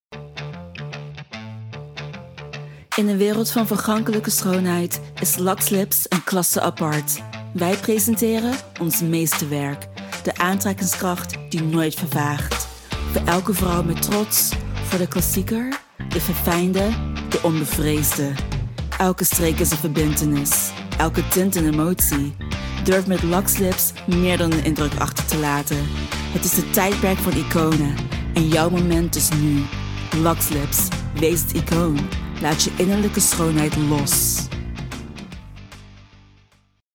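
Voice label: Dutch Commercial; GoLocalise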